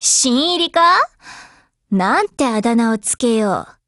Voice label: BUILD OVER JP; MP7